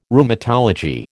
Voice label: "Rheumatology", American

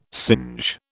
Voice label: "singe", British

